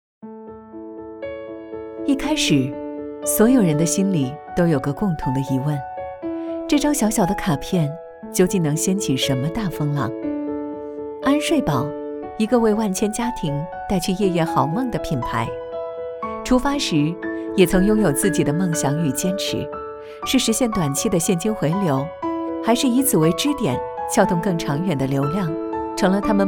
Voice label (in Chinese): 女 形象宣传; 走心企业形象片样音; 安睡宝